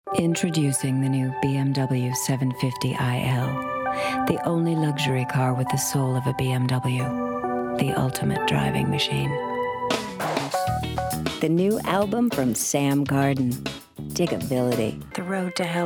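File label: commercial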